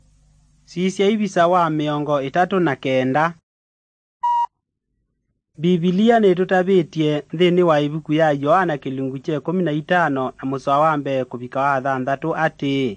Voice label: kikamba drama; good news; bible